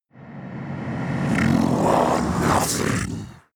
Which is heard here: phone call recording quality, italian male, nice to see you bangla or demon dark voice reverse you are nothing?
demon dark voice reverse you are nothing